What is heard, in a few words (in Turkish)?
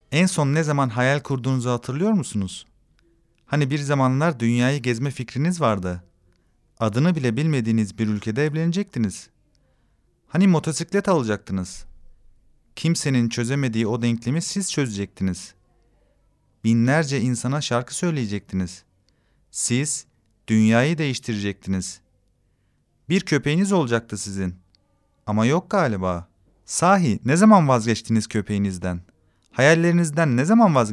reklam